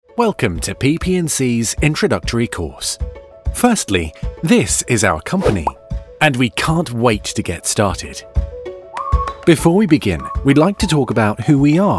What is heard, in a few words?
Corporate Industrial